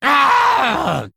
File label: Male Mummy Human Death